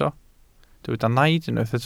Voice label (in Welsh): "naid" Welsh